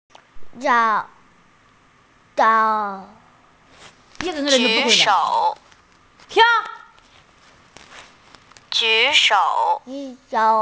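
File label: "ju3 shou3", Mandarin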